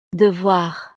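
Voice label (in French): "devoir", French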